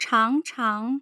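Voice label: "changchang", Mandarin Chinese, tone pairs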